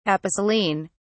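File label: "aposelene", British English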